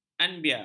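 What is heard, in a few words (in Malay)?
"anbia", Malay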